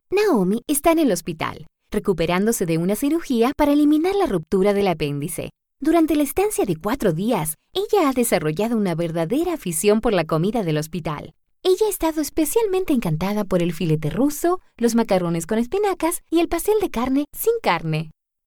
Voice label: Explainer video Dinamic Spanish